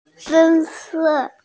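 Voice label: "fertilizer", hearing impaired; fei2wo4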